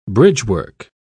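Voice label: "bridgework", English, British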